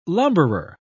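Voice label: "lumberer", British English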